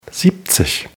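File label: "siebzig", German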